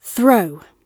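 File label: "throw", British English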